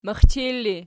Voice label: "mixchil", Archi